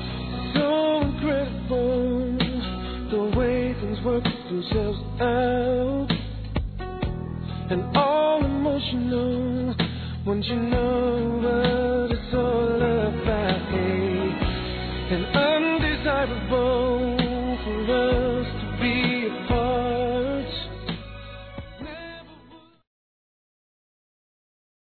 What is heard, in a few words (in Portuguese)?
PAQUERA M; Aniversários; Aniversários diversos